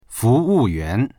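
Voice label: "fu2wu4yuan2", Mandarin Chinese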